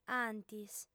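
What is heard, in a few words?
"antis", lingua sarda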